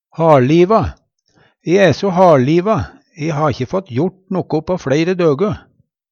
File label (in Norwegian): haLliva, Numedalsmål; E æ so haLliva. Ha ikkje fått jort noko på fleire døgø.; Adjektiv